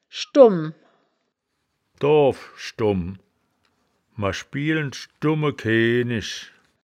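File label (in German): "schdumm", Wittlicher Wörterbuch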